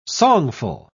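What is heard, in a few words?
"songful", British English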